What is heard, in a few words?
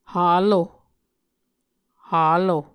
"halo", Muscogee